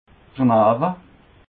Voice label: "dernawa", alsace

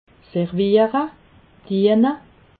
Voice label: "serviere", alsace

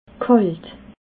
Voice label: "kalt", alsace

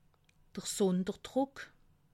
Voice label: "sunderdruck", alsace

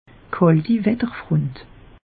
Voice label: "watter front", alsace; meteo

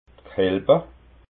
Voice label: "chalbe", alsace

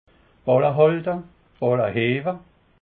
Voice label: "ballehalten", alsace

